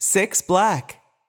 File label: voice Over, roulette; americanroulette3